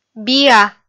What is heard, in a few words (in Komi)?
"биа" kpv f